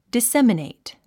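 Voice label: "disseminate", English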